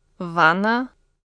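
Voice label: "bath tub", Russian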